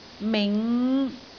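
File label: "ming5", Cantonese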